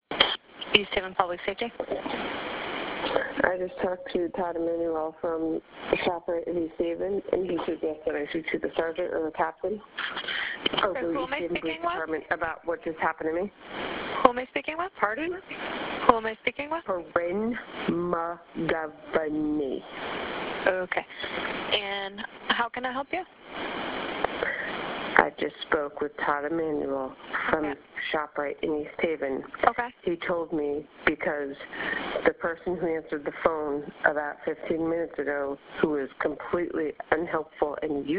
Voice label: 911 call